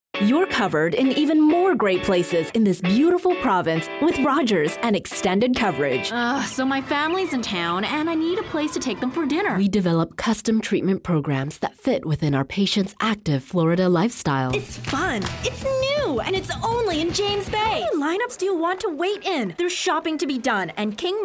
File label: english canadian commercial; Canada